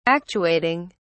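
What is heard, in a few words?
"actuating", English, British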